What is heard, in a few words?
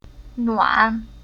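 "Nuan", Chinese syllable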